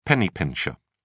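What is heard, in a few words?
"penny-pincher", English, British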